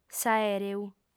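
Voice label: "s'aèreu", female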